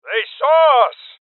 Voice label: Voices Alarm State Male Old; Rebel Cops